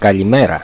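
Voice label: "kalimera", Greek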